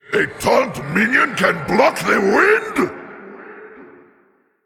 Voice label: Male Elemental; ERROR TAUNT